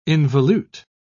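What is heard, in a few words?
"involute", British English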